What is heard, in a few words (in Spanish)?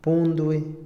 "absolver", Otomí, hombre; INALI